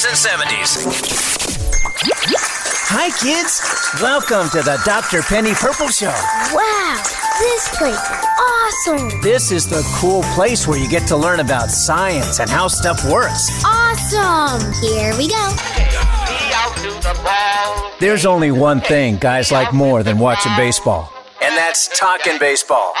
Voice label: Podcast Intro